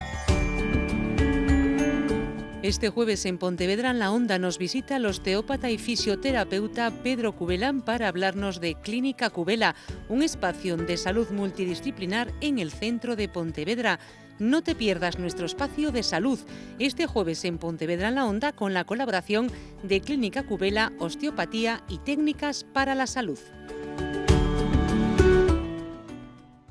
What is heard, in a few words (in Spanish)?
PROMO SALUD